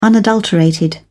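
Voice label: "unadulterated", British English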